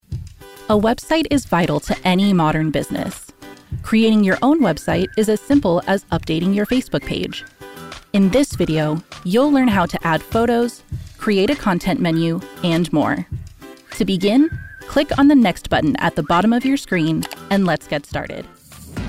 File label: NARRATION; Voice Actor in Los Angeles, CA